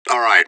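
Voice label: radio bot response positive; fbihrt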